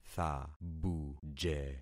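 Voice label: "thabuje", concatenated syllables; Affixing experiment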